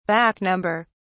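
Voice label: "back-number", British English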